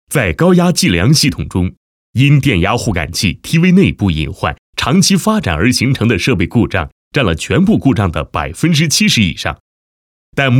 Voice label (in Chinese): 男 解说 电压互感器解说配音